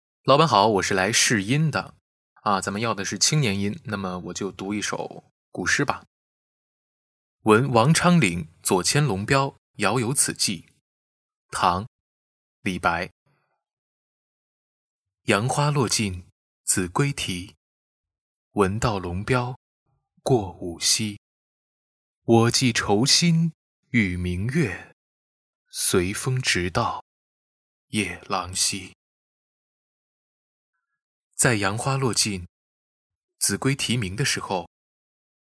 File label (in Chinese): 青年音